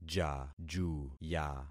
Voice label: "jajuya", concat syllables; Affixing experiment